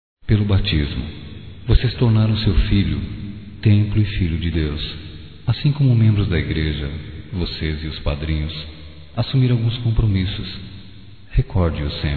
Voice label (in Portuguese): Mensagem de Batizado Para Pais Masculino